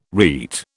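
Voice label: "rete", British English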